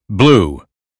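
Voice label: "blue", American phonetics; 英语音标发音（剑桥版）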